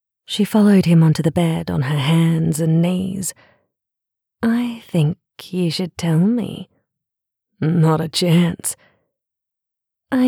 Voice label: erotic sample, voices